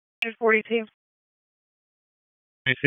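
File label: Dispatch, p25; WSO DISP 3; wake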